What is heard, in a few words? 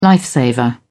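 "life saver", British English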